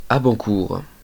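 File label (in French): "Abancourt", French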